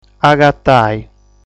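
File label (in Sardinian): "Agatai", Sardinian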